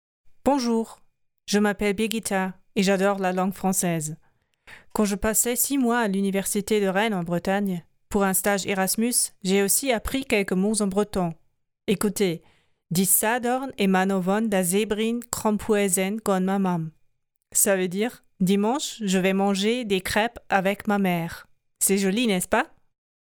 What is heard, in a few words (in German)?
Demo Franzoesisch